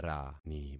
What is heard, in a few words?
"rani", concat syllables; Affixing experiment